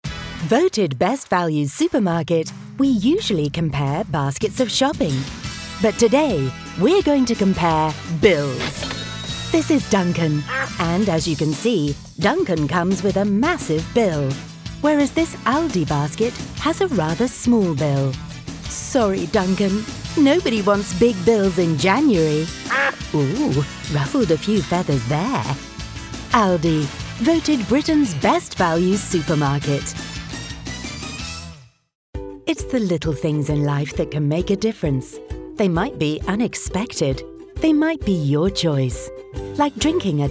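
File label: English, female, commercial; advertising voice over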